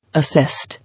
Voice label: "Assist", English